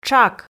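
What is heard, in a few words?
"тшак", Komi, female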